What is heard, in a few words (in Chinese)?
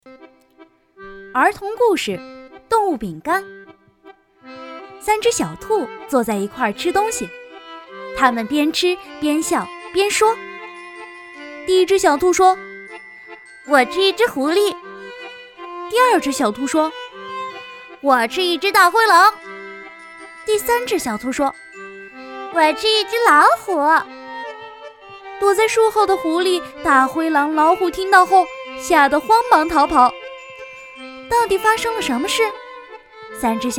儿童读物